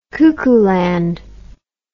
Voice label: "cuckooland", english